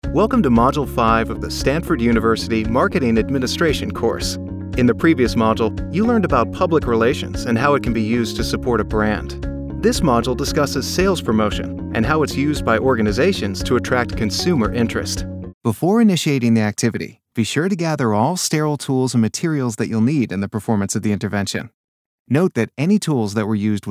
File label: eLearning